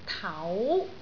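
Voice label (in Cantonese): "tau2", Cantonese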